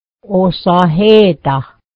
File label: beans, mohawk